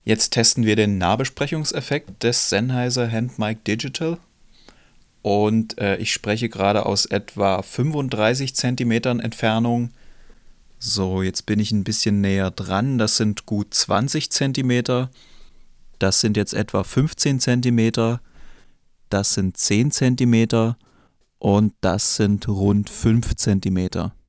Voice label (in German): Sennheiser Handmic Digital test nahbesprechung